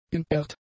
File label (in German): "inert", German; adjectives